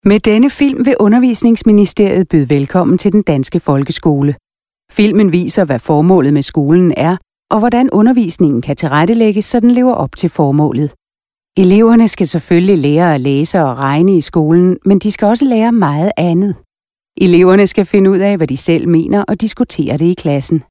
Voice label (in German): denmark female filmvertonung sprecher